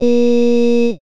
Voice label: "E", female, speech

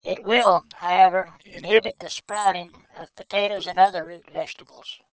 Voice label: male speech to female

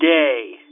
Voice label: "day" phone, phonemes

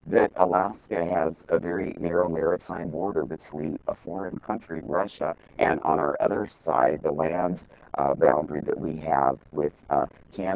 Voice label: to male, speech warping; palin

